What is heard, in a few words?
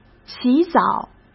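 "洗澡", Mandarin Chinese; chinese flashcards; hsk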